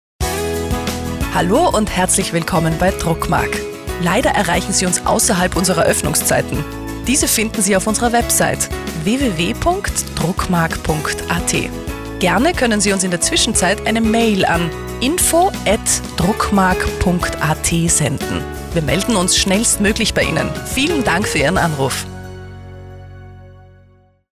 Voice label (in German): Nachtansage; DruckMark; telefonansagen sonstige